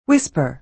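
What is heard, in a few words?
"whisper", American English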